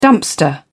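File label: "dumpster", British English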